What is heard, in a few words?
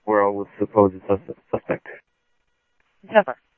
Mesa Simulcast, MESA PD, scanner audio